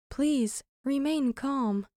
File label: Unsettling Female Ai Vocal Sample